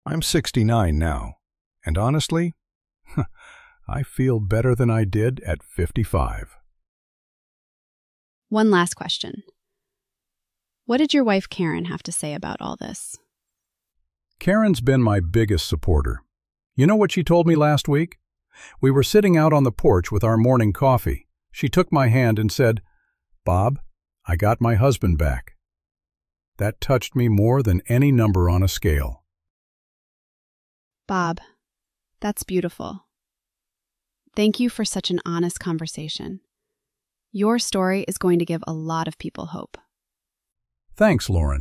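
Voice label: emotional interview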